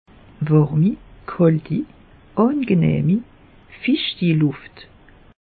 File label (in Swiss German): "warmi", alsace; meteo